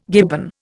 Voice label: "gibbon", Indian